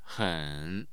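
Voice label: "hen", Mandarin Chinese, tone 3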